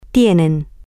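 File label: female, spanish; they Have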